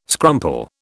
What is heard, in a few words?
"scrumple", British